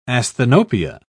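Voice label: "asthenopia", British English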